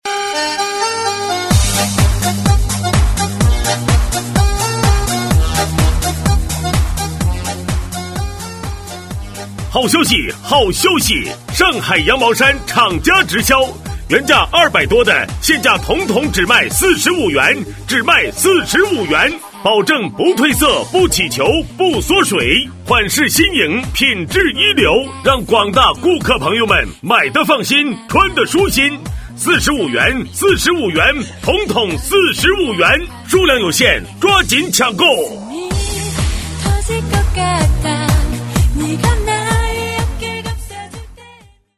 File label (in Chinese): 促销 羊毛衫男; 上海羊毛衫